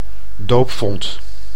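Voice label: "doopvont", Dutch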